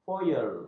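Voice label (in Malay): "foyer", Malay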